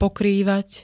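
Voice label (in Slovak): "pokrývať", Slovak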